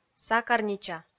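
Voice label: "сакарнича", Komi, female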